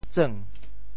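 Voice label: "tseung2", Teochew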